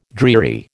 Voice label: "dreary", American